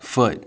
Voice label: "foot", phonemes